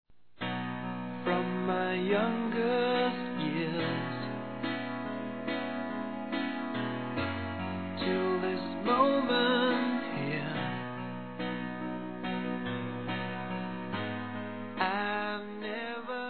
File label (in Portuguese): RECONC. AMANTE FILHOS F; Reconciliação; fem